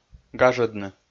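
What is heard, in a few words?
"гажӧдны", Komi-Zyrian, male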